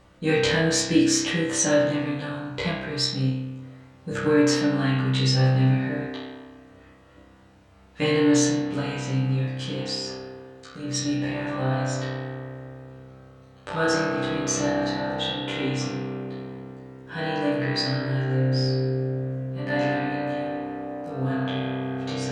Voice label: Resonance Voice